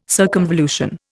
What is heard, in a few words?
"circumvolution", Indian